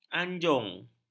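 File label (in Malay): "anjung", Malay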